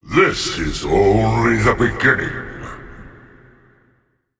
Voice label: Male Demonic Titan